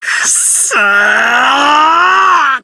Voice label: damn it (crying)